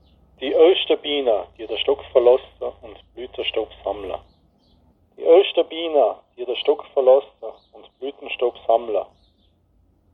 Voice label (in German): Mundart; tannheimertal